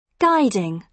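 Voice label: "guiding", British English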